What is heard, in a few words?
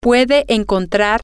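Spanish, female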